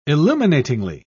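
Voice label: "illuminatingly", British English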